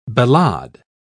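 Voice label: "ballade", British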